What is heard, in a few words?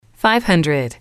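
"five hundred", English, female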